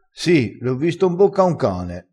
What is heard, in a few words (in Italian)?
"l ho visto", crespinese